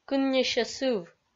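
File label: "кын нисьӧ сыв", Komi, female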